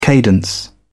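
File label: "cadence", British English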